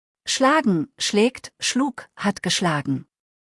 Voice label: "schlagen", deutsch; verben kurzliste

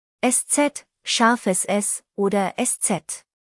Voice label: "eszett", deutsch; spell de; buchstabierung alt

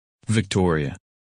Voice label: "Victoria", women names; Английские имена: женские

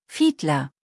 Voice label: "Fiedler", deutsch nachnamen

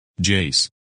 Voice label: "Jace", men names

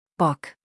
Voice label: "Bock", deutsch nachnamen

